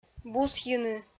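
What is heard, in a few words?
"бусйыны", Komi-Zyrian, female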